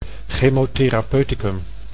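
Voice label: "chemotherapeuticum", Dutch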